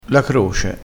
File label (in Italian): "croce", crespinese